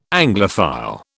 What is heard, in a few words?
"Anglophile", British